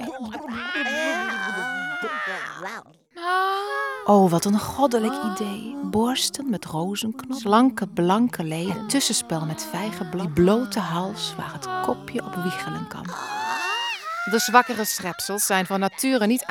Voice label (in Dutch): vrouw